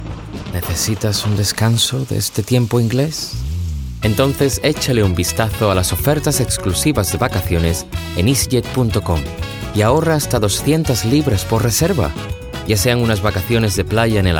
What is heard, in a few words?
Spanish